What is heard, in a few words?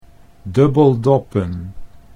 "dubbeldoppen", Dutch